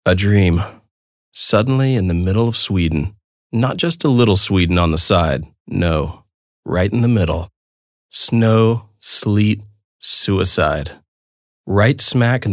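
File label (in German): US English, Schauspiel Sanft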